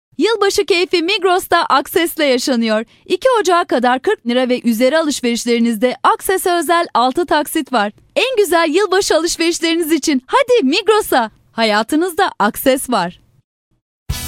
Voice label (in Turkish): KADIN